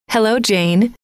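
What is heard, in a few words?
hello, female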